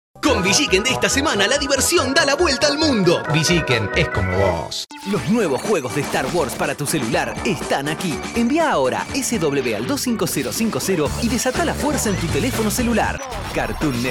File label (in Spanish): promos